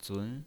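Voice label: "zun", Mandarin Chinese, tone 2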